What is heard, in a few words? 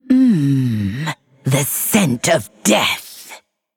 Female Lich